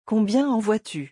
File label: "combien en vois tu", French; pronunciation; forbidden liaisons